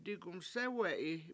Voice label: "digumsEwe7i" I make fire, Washo